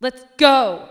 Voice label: angry